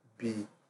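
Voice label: "be", Nigerian Pidgin, male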